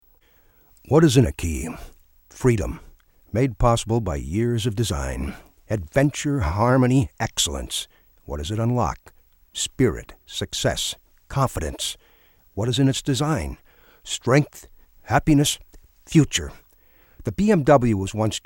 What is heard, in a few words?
Commercial; BMW